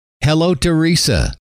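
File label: "hello teresa", male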